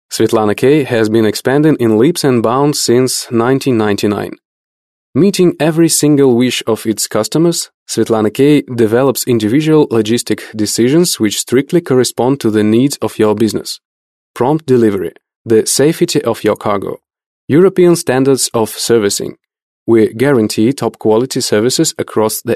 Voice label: english male

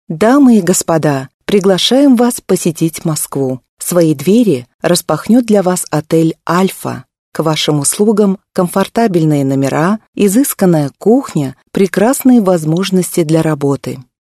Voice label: russian female

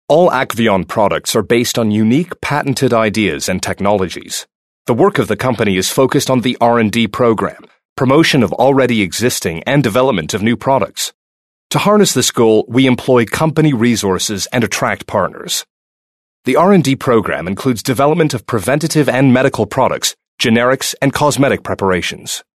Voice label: american english male

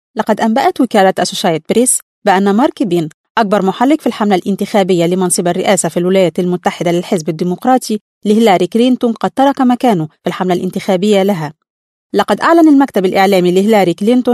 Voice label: arabic female

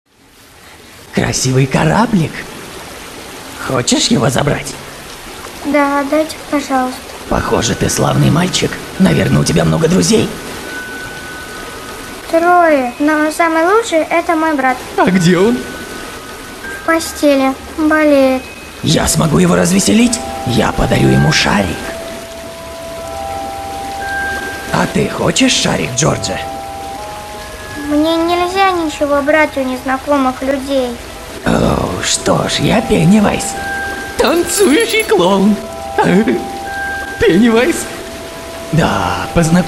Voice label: RUS

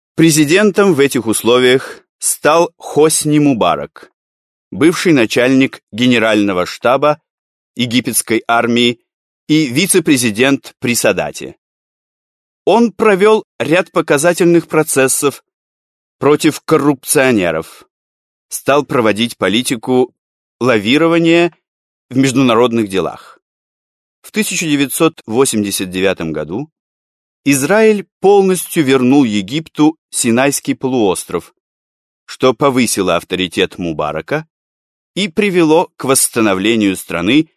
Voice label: russian male

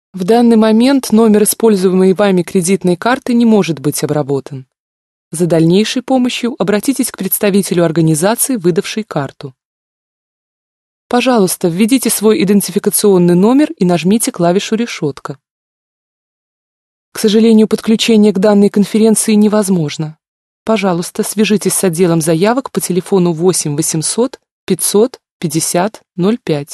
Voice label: russian female

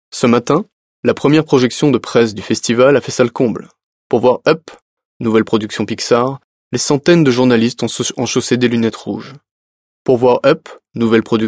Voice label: french male

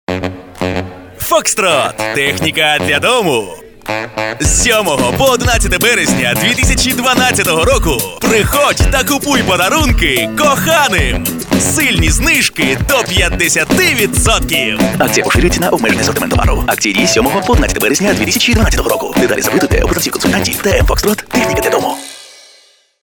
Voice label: ukraine male

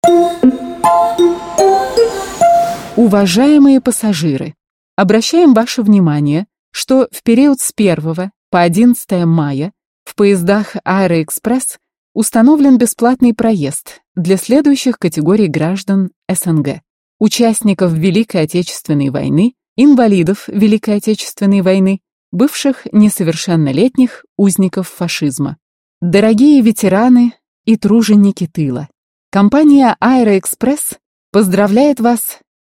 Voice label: rus

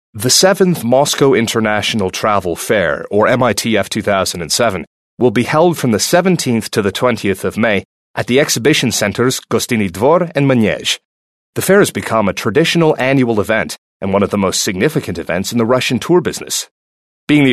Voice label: american english male

